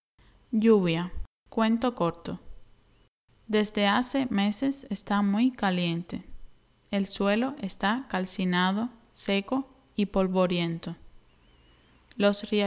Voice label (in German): Hörprobe Regen Spanisch schnell